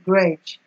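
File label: "gredge" Nigerian Pidgin, female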